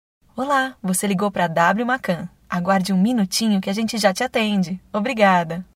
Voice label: ESPERA TELEFONICA

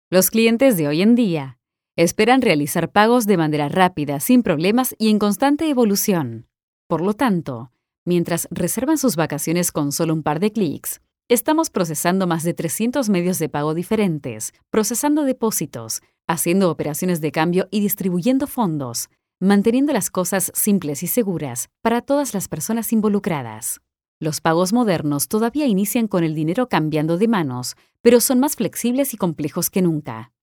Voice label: institucional neutro

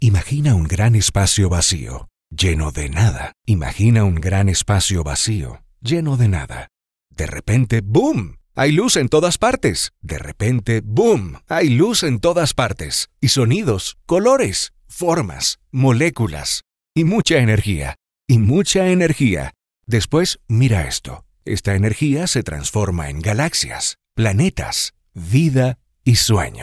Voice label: hombre urgente

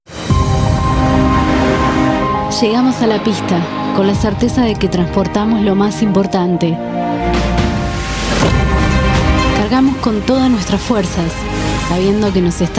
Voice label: spot; correo argentino

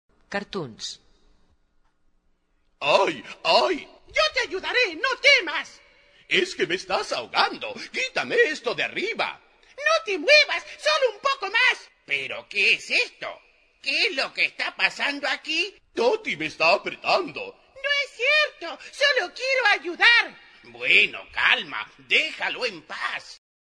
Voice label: Loc. Neutro, Cartoons

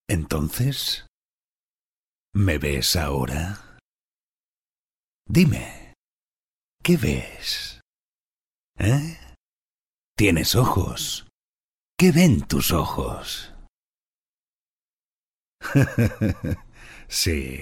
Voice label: Doblaje Videojuego; Metal Gear

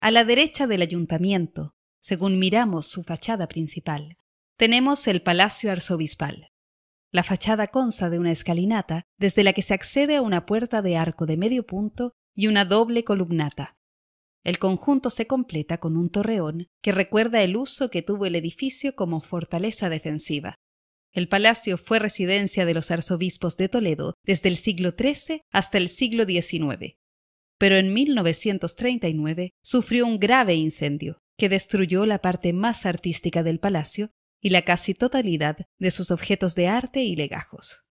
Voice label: Audioguia Turistica

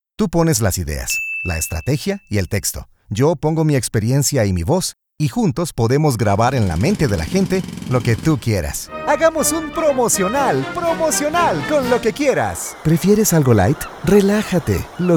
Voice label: Español Neutro

